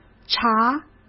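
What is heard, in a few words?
"查", Mandarin Chinese; hsk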